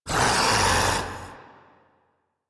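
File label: Pain, Female; Warframe; Slinky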